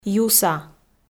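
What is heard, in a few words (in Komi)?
"юса" Komi, female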